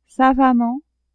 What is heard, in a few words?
"savamment", French; adverbs